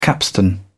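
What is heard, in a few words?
"capstan", English, British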